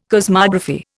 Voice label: "cosmography", Indian